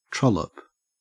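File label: "trollop", Australian English; pronunciations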